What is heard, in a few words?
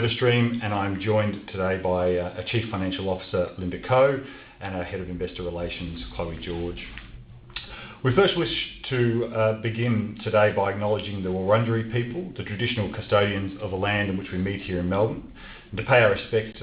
Half Year Results Conference Call; Service Stream